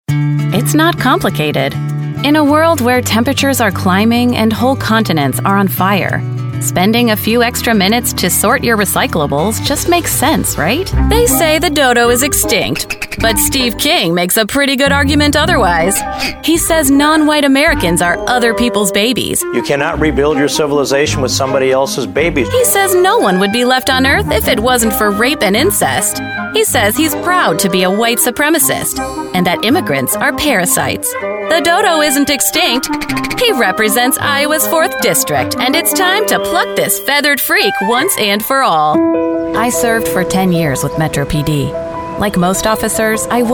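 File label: POLITICAL, Professional Female Voice Talent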